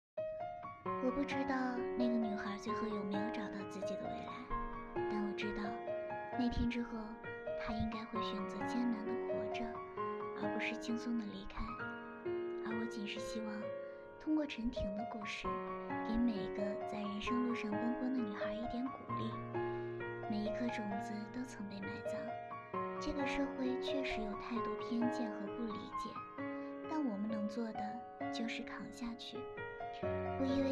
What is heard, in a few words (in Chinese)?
电台情感文